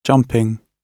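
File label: "jumping", British English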